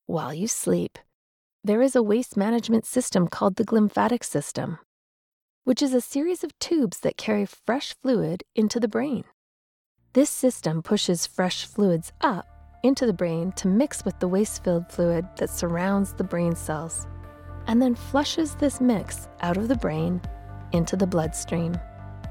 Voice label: E-Learning